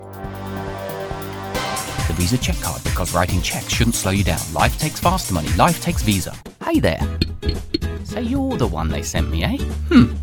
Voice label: Commercials